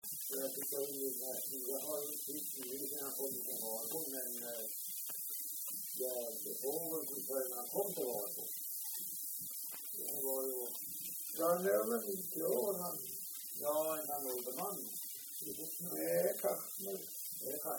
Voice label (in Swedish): dialekter; Folkminnen; Svenska sagor